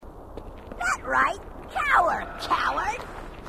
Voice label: grunt; h2dialogue; grunt crazy